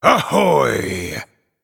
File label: Male Night Elf Emote Greetings